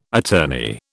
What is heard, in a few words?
"attorney", British